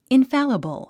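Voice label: "infallible", English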